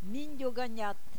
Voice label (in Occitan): "minjaganhat", Gascon